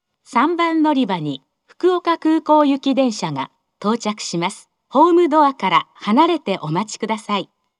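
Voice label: approaching Fukuoka Airport, station announcement, Fukuoka city subway; 接近-福岡空港行; 福岡市地下鉄 空港線・箱崎線 中洲川端駅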